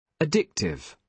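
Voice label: "addictive", British English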